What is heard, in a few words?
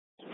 Sheriff Dispatch, Scanner audio recording